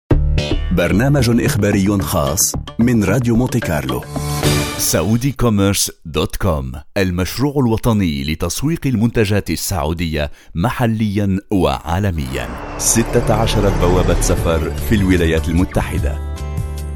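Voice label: Arabic, foreign accent voice overs; foreign languages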